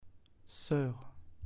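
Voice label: "soeur", French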